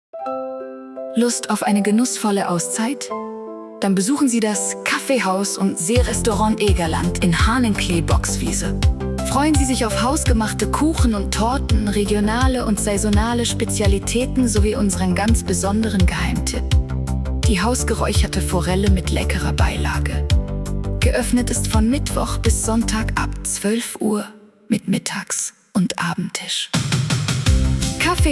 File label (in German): Werbespot; Kaffeehaus Egerland